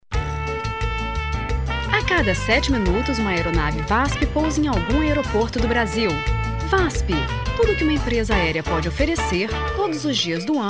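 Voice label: Portuguese Brazil, female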